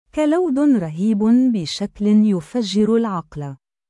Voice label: arabic voice